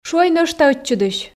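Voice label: шуӧй нӧшта ӧтчыдысь, Komi, female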